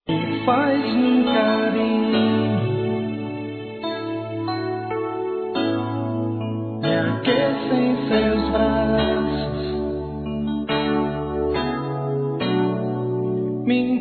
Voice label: Trilha FILHA, Gospel, fem; Telemensagens; Telemensagens 2017

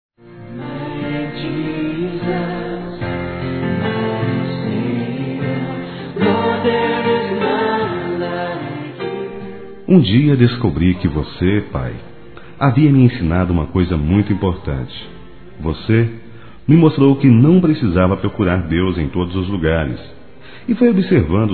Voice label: Evangélico Aniversário Pai Masculino, Telemensagens

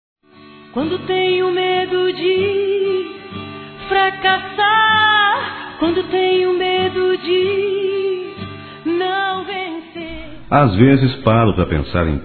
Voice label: Evangélico Aniversário Romantico Masculino, Telemensagens

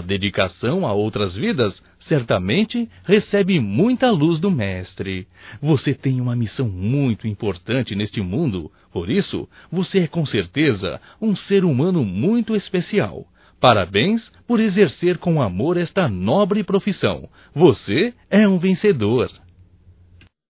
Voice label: Dia Médico Masculino, Telemensagens, Só Voz; DATAS COMEMORATIVAS

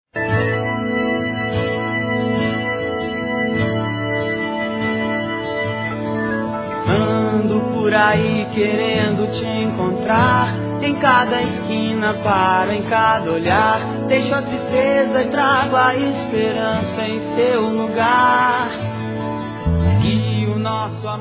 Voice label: SAUDADES ROMANTIC F; Telemensagens

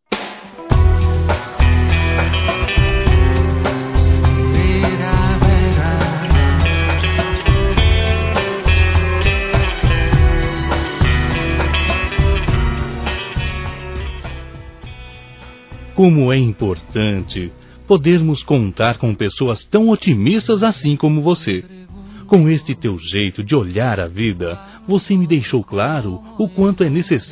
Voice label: Esperança Masculino; Telemensagens; Otimismo

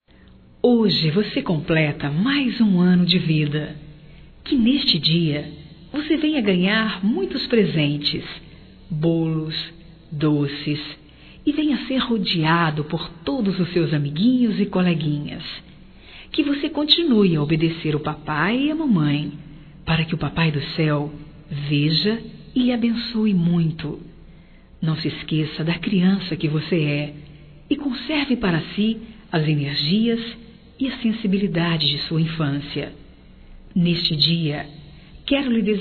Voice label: Aniversário infantil, Telemensagens; Aniversarios; Telemensagens 2017

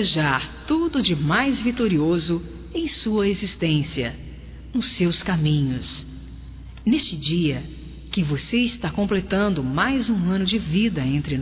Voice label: MADRINHA, Telemensagens, Aniversarios, fem; Telemensagens 2017

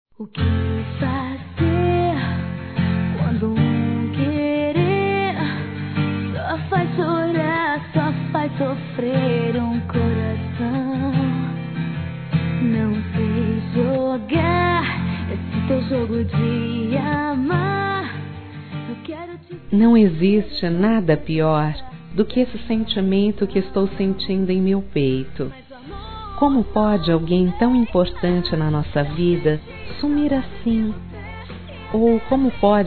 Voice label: Romântica você sumiu; Telemensagens; Saudades

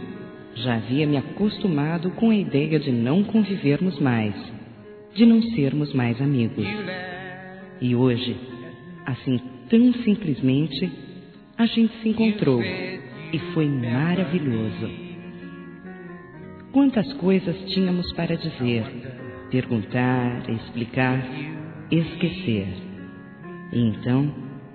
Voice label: RECONCILIAÇÃO F

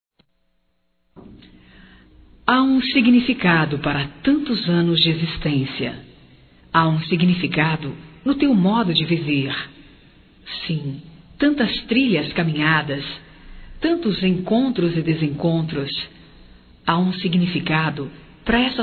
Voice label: Aniversário Avô Feminino; Aniversarios; Telemensagens; Telemensagens 2017

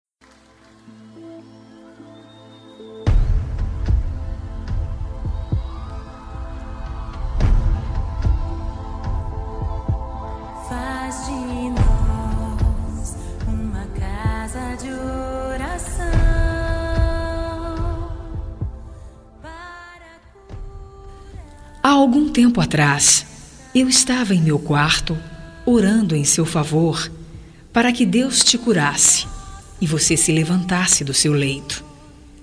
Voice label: Restabelecimento Saúde Feminino; Amizade; Casa de Oracao

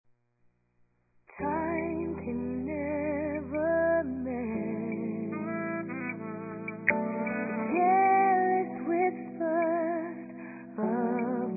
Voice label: Dia das Mães Romântico Masculina, Telemensagens; Telemensagens 2017

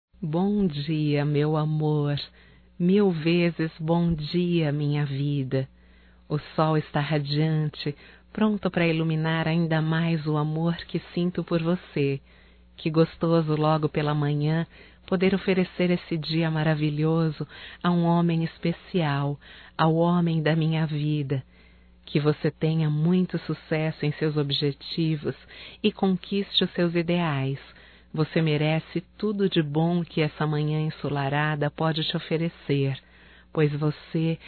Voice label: BOM DIA ROMâNTICO, fem, Telemensagens; Bom dia, tarde e noite; Telemensagens 2017

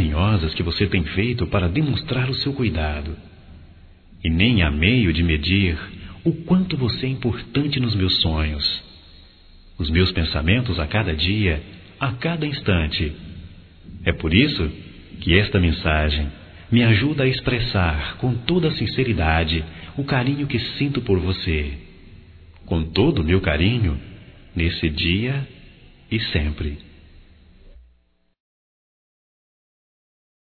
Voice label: Obrigada Pelos Momentos Masculino, Só Voz; Telemensagens; Telemensagens 2017

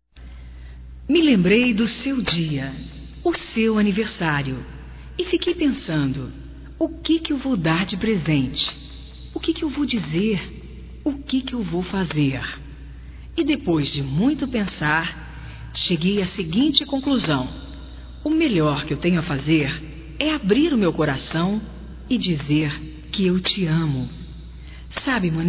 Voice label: IRMAO, Telemensagens, Aniversarios, fem; Telemensagens 2017

